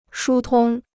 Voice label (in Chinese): "疏通", Chinese